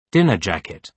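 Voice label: "dinner jacket", British English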